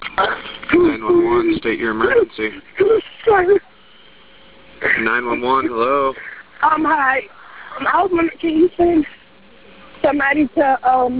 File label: 911 call; made from Dewey Street